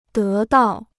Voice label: "得到", Chinese

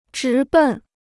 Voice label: "直奔", Chinese

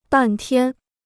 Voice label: "半天", Chinese

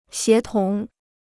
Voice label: "协同", Chinese

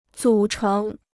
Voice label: "组成", Chinese

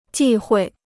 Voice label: "忌讳", Chinese

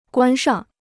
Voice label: "关上", Chinese

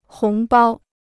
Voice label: "红包", Chinese

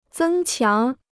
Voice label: "增强", Chinese

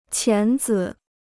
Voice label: "钳子", Chinese

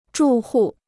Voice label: "住户", Chinese

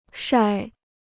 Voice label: "晒", Chinese

